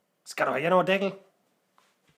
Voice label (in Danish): Ska du have en aa daekkel, sonderjysk